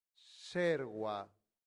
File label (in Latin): "serva", latin; santillana